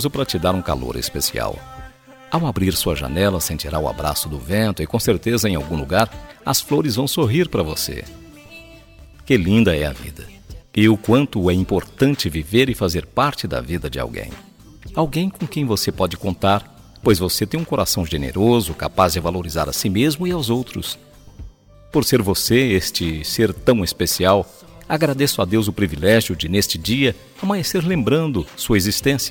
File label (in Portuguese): ANIV CUNHADA MASC, telemensagem